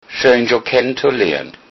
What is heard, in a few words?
meet, lowsaxon